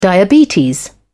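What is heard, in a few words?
"diabetes", British English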